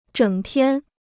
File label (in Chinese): "整天", Chinese